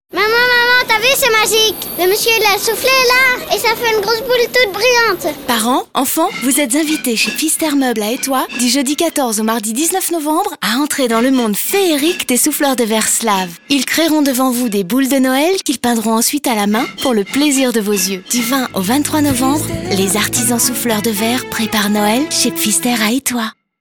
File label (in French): radiopub spot